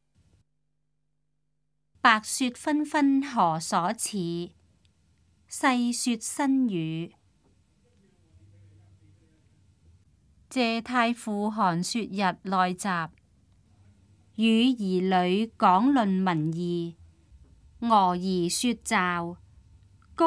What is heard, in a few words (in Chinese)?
cantonese; 白雪紛紛何所似; 粵語